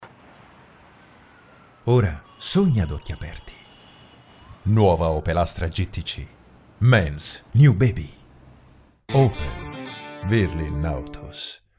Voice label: promo spot; Opel

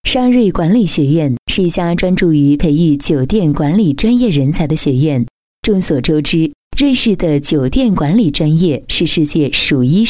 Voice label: E-learning Mandarin; SHRM College

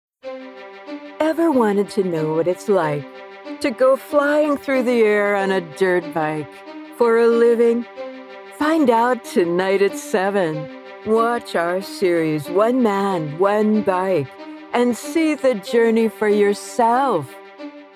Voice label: DIRT BIKE TV AD EXCERPT

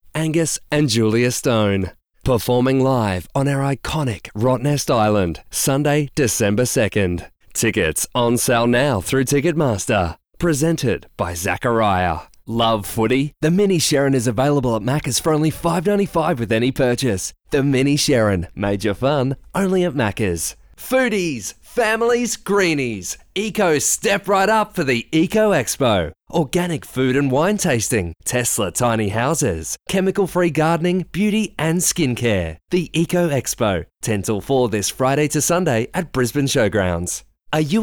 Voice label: COLD VO

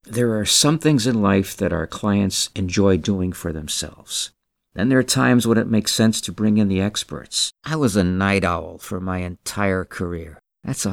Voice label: Commercial Collage

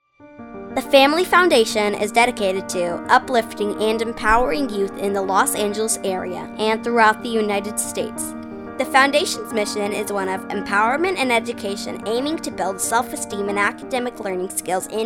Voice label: Informative

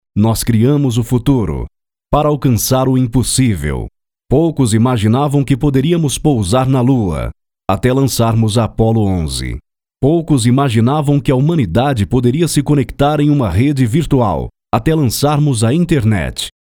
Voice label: Documentary PT-BR

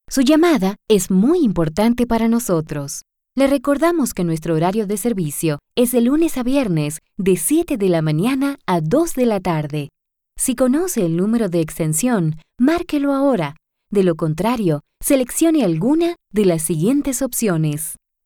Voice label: On Hold Spanish

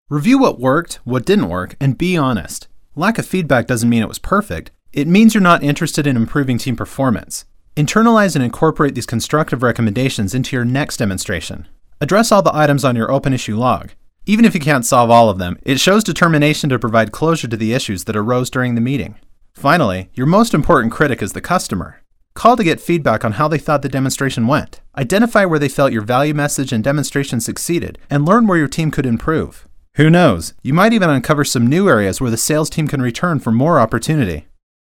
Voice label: Corporate Training

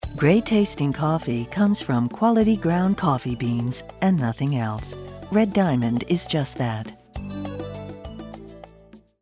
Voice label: Anncr; Red Diamond Coffee

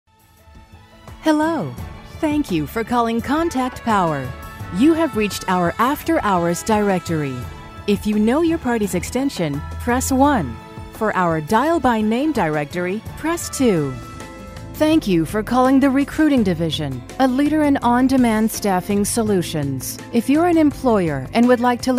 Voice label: On Hold Messages

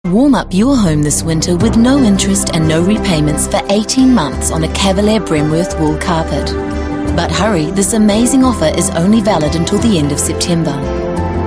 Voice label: Television Spot Soft

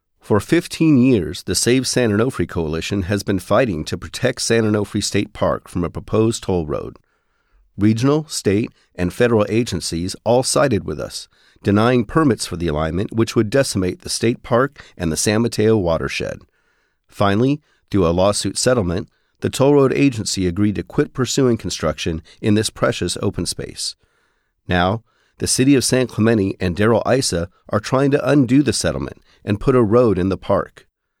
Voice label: PSA; Save San Onofre

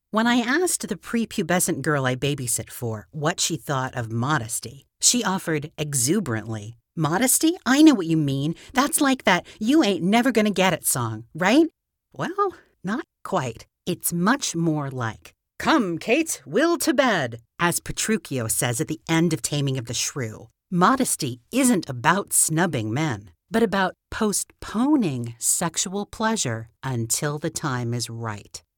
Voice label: Natural Speak Modesty

